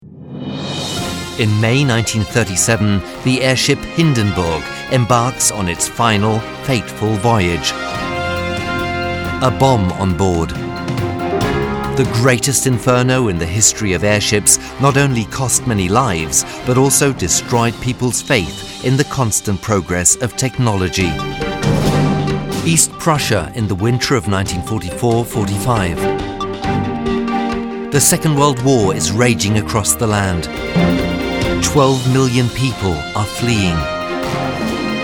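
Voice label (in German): British English, Werbung Dynamisch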